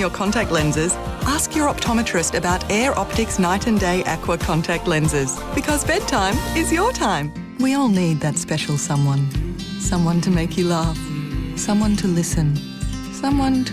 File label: VO Demo General